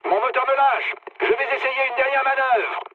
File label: damaged engine mood low, speech fr; War Thunder